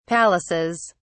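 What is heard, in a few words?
"palaces", British English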